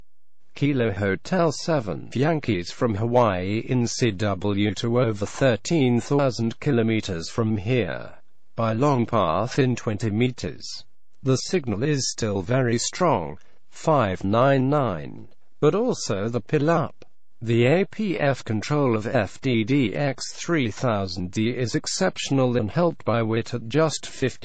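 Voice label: FTdx3000d to kh7y 20m APF 50Hz 599 pileup; HB9; audio test FTdx3000d Extreme DX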